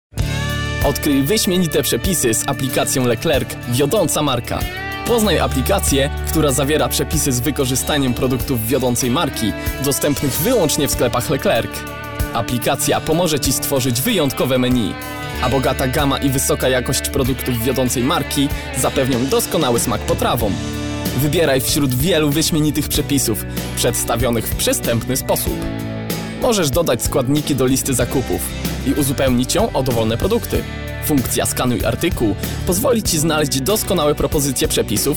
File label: male polish voice over talent sales